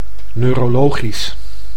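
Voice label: "neurologisch", Dutch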